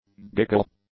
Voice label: "giggle", English; Noun; nouns